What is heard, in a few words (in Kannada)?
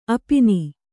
"ಅಪಿನಿ", Kannada